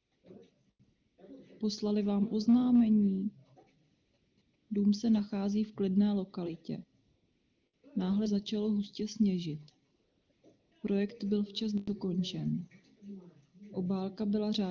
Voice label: Office Noise Czech Female Handset; STF 294 Database (EG-ES 202 396); AMR-WB; 150ms Delay - 10ms Jitter - 1% Loss